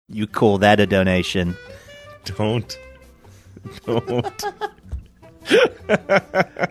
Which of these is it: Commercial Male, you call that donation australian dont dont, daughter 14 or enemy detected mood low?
you call that donation australian dont dont